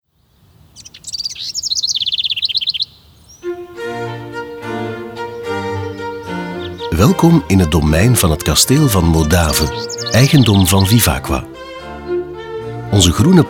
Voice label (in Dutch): audioguide nl adulte; jardin; modave